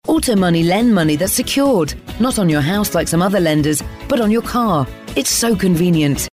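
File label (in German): British English, Dynamisch; Fremdtake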